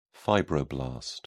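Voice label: "fibroblast", English, British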